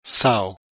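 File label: "sow", English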